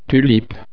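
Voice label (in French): "tulipe", French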